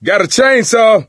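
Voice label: melee weapons, voice, male; Left 4 Dead 2; coach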